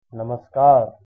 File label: "hello", Hindi